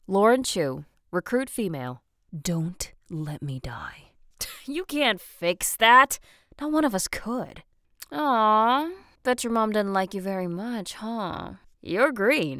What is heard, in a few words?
Recruit Female